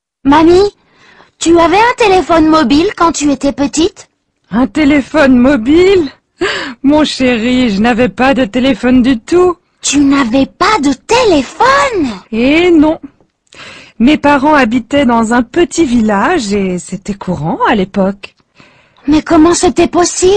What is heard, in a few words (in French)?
dialogue imparfait